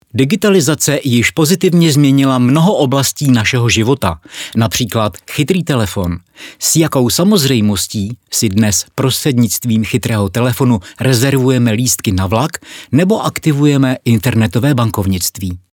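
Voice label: Czech, male, narration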